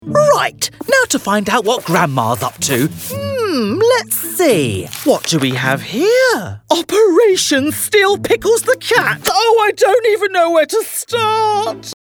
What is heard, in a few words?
Grandson, Young, Bubbly, Bright; characters animation